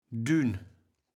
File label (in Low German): "dün", kaevels platt